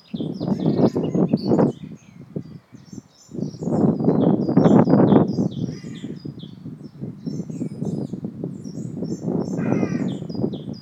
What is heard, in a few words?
British